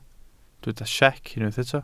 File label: "siec", Welsh